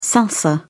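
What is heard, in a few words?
"salsa", British English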